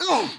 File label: Male; baldurs1